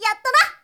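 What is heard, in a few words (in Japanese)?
"shonen yattana", Japanese boy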